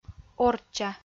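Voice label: "орчча", Komi-Zyrian, female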